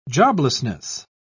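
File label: "joblessness", British English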